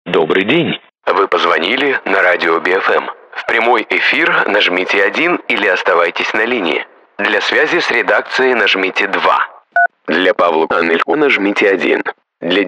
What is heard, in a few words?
russian ivr